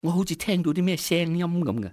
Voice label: thought i heard, cantonese, male; XBOX; enemy